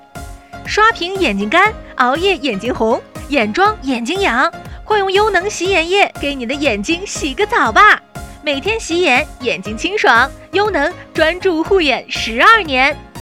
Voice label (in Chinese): 广告促销